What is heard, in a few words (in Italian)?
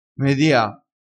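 "media", dialettale crespinese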